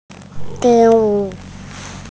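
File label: "diu1", Mandarin Chinese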